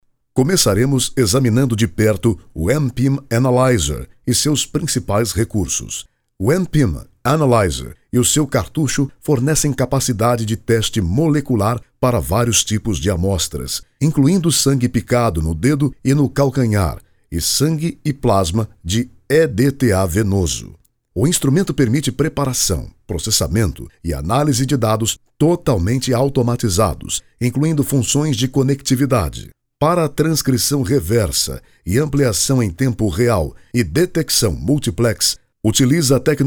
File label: portuguese brazilian narration